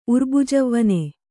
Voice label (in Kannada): "ಉರ್ಬುಜವ್ವನೆ", Kannada